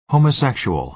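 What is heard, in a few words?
"homosexual", American English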